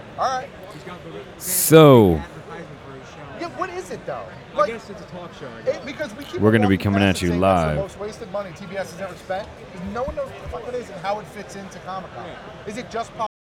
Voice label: Male Voice; NYCC 2013